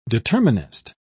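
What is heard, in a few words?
"determinist", British English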